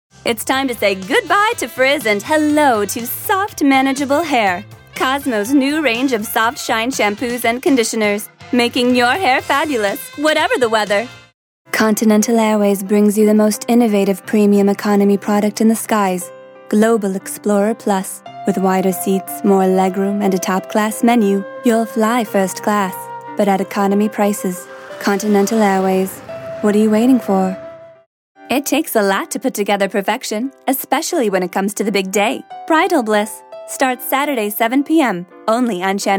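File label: American, TV commercial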